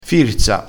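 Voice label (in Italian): "firza", vocabolario dialettale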